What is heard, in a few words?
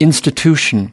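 "institution", spoken word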